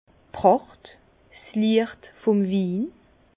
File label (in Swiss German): "pracht", Bas Rhin; vin; éclat du vin